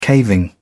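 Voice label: "caving", British English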